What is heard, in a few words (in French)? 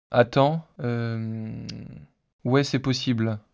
hesitation synthese basique